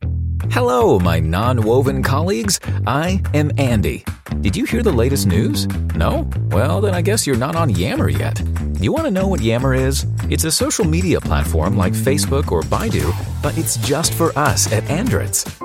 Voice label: American English Voiceover

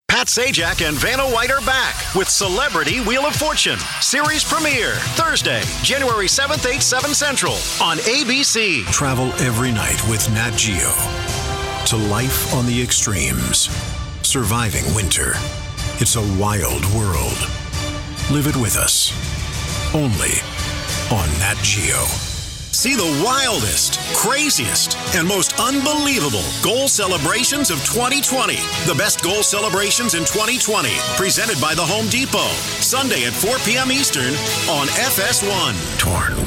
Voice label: Promo

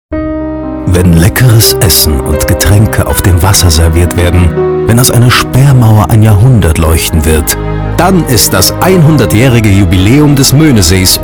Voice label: tv advertising promotion

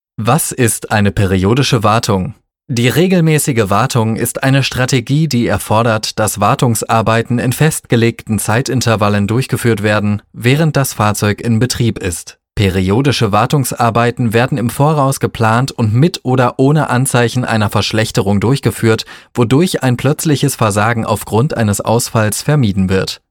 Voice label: English Voice Over

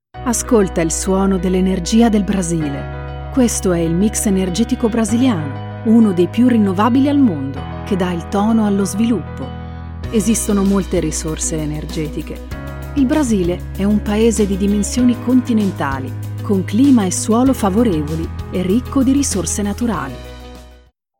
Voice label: Calm Narrato

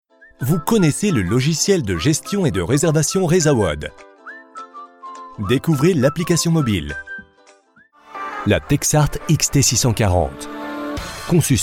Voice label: french voiceover funny short ads

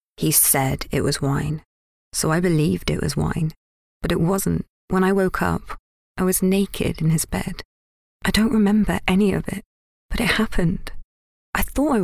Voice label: English Voice Over

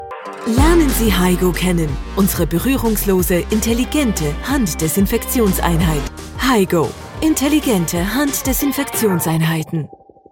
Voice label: energetic advertisement German Female Voiceove

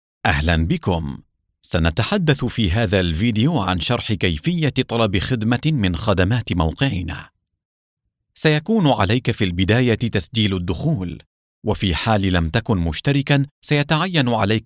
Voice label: Arabic voice over Elearning

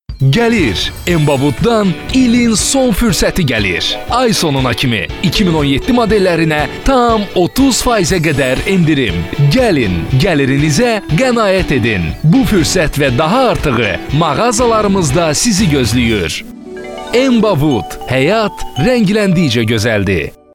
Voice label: Azerbaijani voice over

